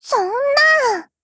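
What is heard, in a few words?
LOW MOOD, Japanese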